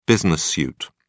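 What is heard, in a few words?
"business suit", British English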